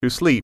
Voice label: "sleep", English

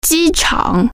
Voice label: "airport", Chinese

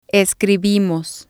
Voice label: "escribimos", Spanish

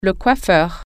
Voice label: "barber", French

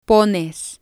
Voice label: "pones", Spanish

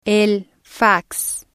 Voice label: "el fax", spanish

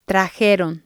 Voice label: "trajeron", Spanish

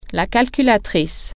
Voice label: calculator, french

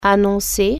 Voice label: announce, french

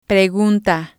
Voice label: "preguna", spanish

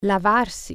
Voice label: wash, italian

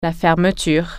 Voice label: "zipper", French

